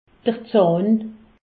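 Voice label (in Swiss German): "zahn", Alsace, Haut Rhin; medecine; dent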